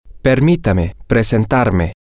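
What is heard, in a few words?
Spanish, male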